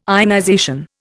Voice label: "ionization", Indian

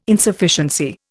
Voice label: "Insufficiency", Indian

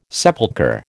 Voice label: "sepulchre", American

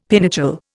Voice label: "pinochle", Indian; Dictionary

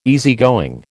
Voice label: "easygoing", American

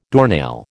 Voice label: "doornail", American

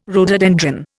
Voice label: "rhododendron", Indian

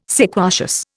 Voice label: "sequacious", Indian

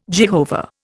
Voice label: "Jehovah", Indian

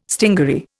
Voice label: "stingaree", Indian

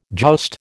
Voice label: "joust", American

